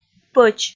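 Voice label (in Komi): "пӧч", Komi, female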